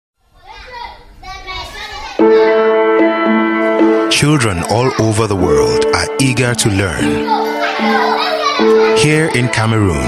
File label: English African Narration, African Male